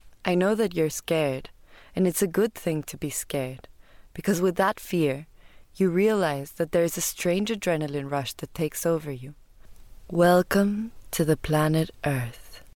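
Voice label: ESLA AccEN, Latin American Spanish accented English; GoLocalise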